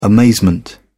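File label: "amazement", English, British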